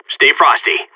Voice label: radio lock n load; Source Model Packs; Counter Strike Model Pack; CS2; seal